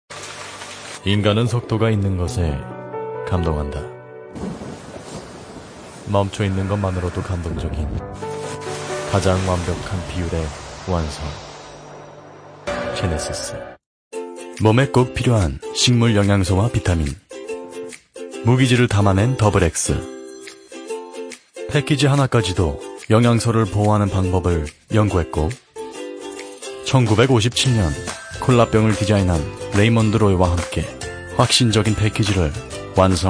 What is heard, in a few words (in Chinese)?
多风格韩语母语配音